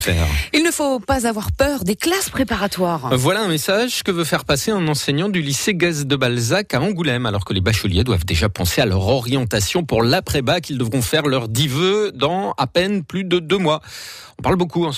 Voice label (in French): reportage